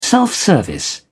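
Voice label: "self-service", British English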